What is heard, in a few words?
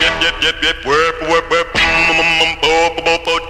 bip bip bip woom wa woom woom, gibbrish; Voice